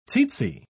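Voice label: "tzetze", British English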